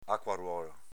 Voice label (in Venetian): "aquaruolo", Veneto; aquaruòlo agg. acquatico